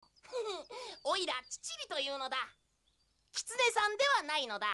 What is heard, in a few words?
japanese; fushigiyuugi; chichiri